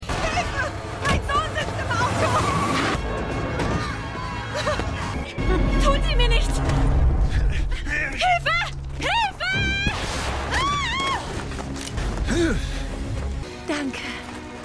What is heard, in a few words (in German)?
Mutter Frau; Supergirl